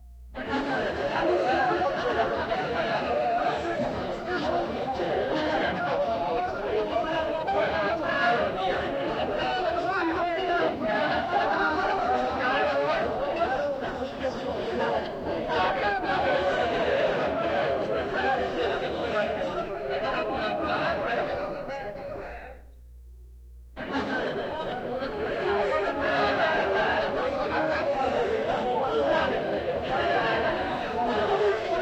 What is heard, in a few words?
whispered judgmental span; expressive